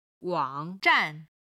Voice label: "wang3 zhan4", Chinese

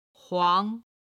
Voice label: "huang2", Chinese

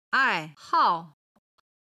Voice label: "ai4hao4", chinese

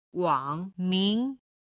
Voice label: "wang min", Chinese

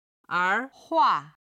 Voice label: "er2hua4", chinese

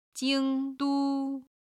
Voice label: "jing1du1", chinese

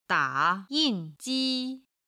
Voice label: "da3 yin4 ji1", chinese

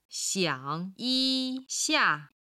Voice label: "xiang3yi1xia4", Chinese

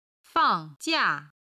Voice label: "fang4jia4", chinese

